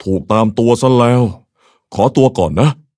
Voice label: level up, th; cookie run